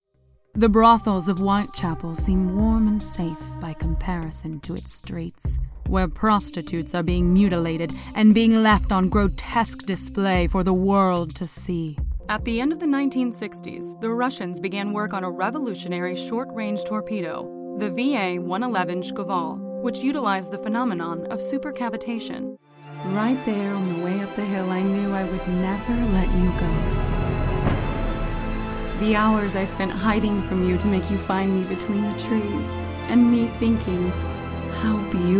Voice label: dramatic narration

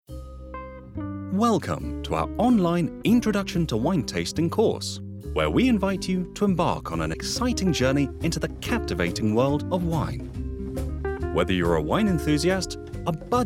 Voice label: E-Learning

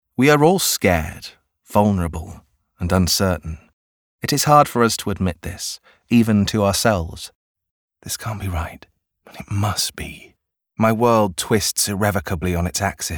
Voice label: Audiobook

